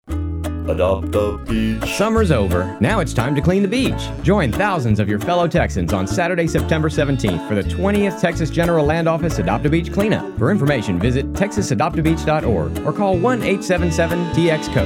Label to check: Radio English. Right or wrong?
Right